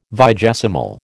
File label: "vigesimal", American